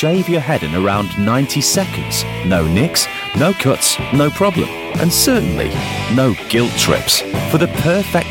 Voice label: matter of fact skull shaver ad

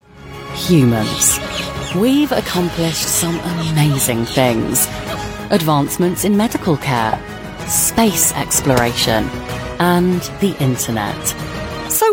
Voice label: corporate

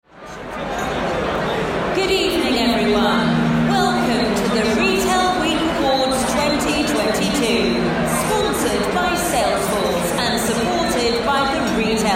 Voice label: voice of god awards; retail week awards

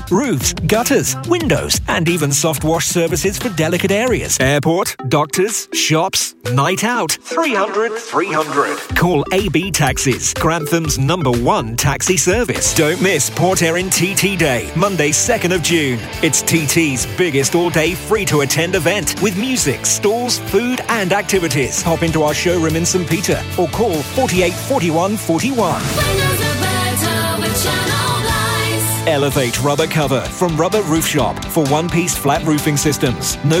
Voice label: commercial, Powerful And Authoritative Voiceover

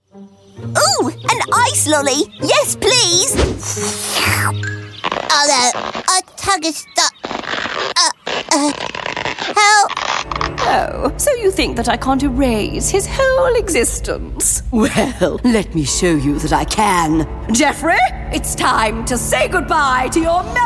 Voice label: character

